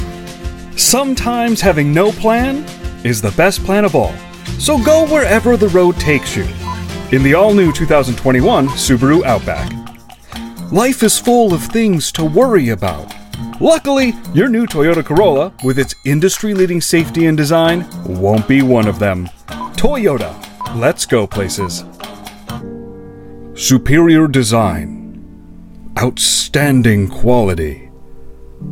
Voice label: car commercial VO